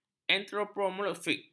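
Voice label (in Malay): "antropomorfik", Malay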